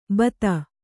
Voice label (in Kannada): "ಬತ", Kannada